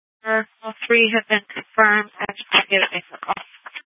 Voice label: Sheriffs Office, scanner; Maricopa County; D1 Southeast; Chandler-Mesa Simulcast